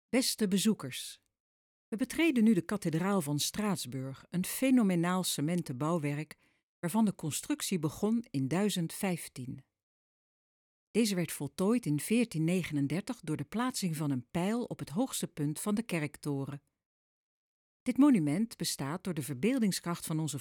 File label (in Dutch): audioguide; Straatsburg